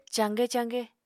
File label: "caaqe-caaqe", Apatani